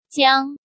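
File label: "Jiāng", Chinese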